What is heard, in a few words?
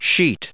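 "sheet", US English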